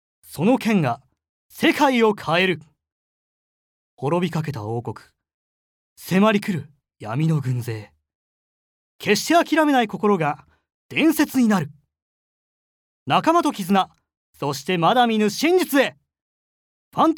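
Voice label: dialogue narration long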